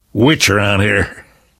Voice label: heard witch, survivor voice